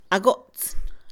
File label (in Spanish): navaja de afeitar, Mixe, Mujer; Ropa; INALI